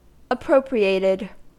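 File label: "appropriated", US English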